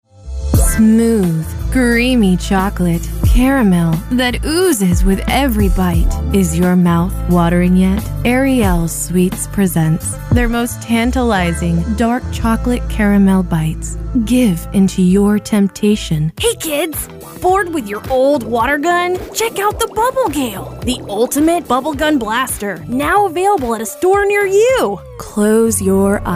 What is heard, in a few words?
Commercial